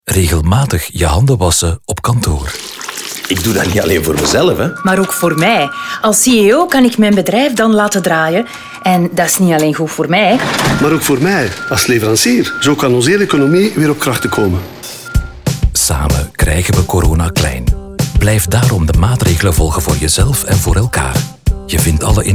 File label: Radio NL; VLAK Fase 2; Kantoor